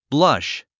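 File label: "blush", English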